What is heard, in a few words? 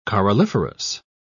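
"coralliferous", British English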